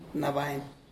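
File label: "alive", Tlacolula Zapotec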